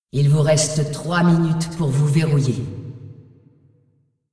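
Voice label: announcer female, pt br; tournaments lockin 3min; fr fr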